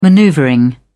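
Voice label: "maneuvering", British English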